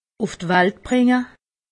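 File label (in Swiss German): "welt", Alsatian; medecine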